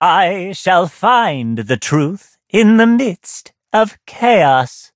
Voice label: levelup, en; Cookie Run

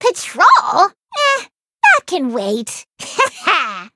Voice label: eng menu idle; bacon roll; cookie run

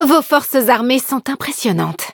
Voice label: victory, fr; cookie run

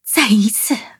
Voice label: defeat, zh-hant

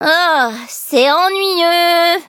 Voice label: defeat fr; Cookie Run

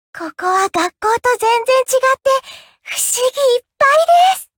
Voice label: upgrade, ja; cookie run

